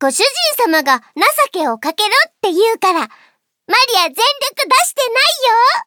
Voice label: victory jp